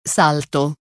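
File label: "salto", Italian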